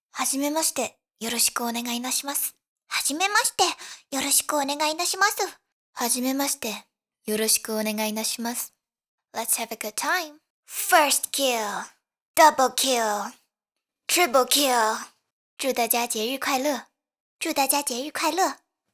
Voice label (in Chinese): 多语配音